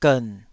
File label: "gern3", Taiwanese syllable